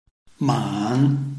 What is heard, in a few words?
"man3", Chinese